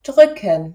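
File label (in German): "drücken", German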